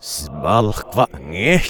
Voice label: Reversed Voiceover; King Of Clubs